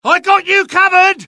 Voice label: suppress, dialogue UK; mohaa